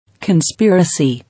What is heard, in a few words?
"Conspiracy", English